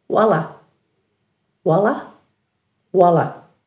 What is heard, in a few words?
"wala-", Dhurga